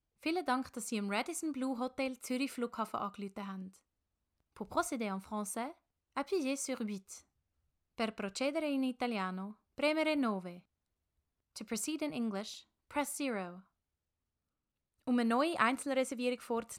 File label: IVR; RadissonBlu